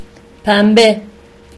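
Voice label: pink, Serbian; gcompris-data; colors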